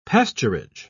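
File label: "pasturage", British English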